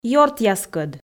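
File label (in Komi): "ёртъяскӧд", female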